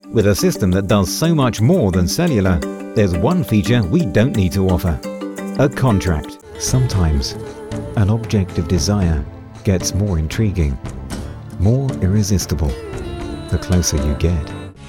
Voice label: british commercial